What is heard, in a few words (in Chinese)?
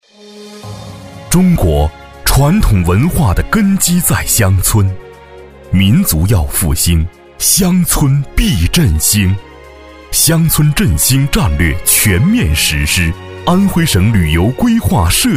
男 专题 磁性; 青村宣传片